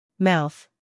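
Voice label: "mouth", American English, female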